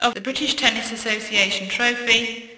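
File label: umpire, indoor; Atennis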